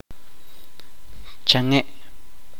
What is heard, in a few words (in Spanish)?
"cuatro", chuj